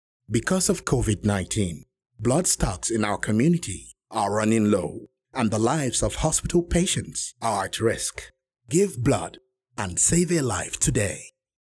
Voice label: Short English Save a life today